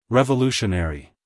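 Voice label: "revolutionary", American English male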